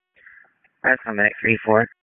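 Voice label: EMS Dispatch; wake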